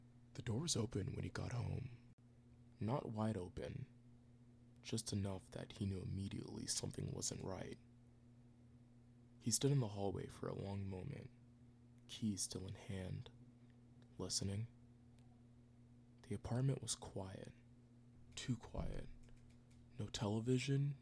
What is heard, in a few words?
Thriller suspense VO, audiobook